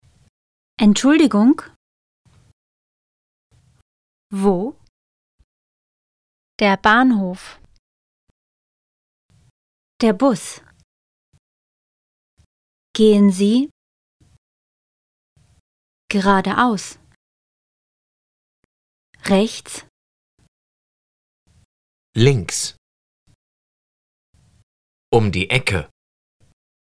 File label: German